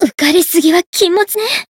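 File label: Voice win barely, Japanese; renri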